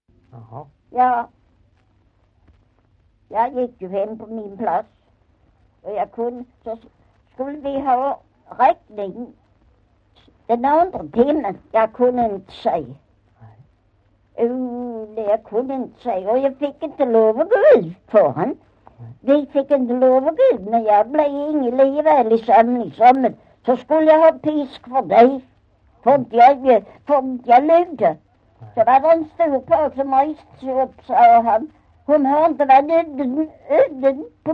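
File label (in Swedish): Maglarp Skåne, dialekter; Folkminnen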